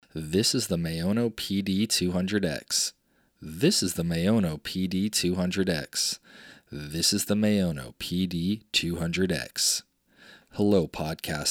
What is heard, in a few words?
Maono PD200x Sound Test, microphone; a multifunctional microphone